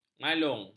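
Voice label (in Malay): "malung", Malay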